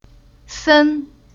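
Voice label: "Sen", Chinese syllable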